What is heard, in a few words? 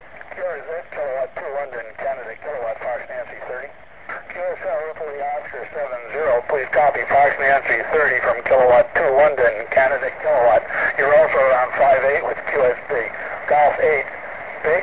qso